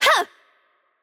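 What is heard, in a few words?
German attack light; de DE; VO; bloom minigame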